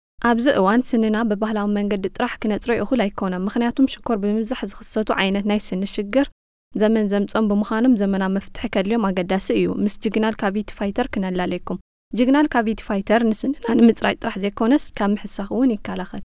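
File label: Tigrinya, female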